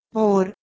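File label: "bhor", Proto-Indo-European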